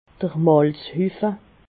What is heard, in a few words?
"malzhuffe", alsace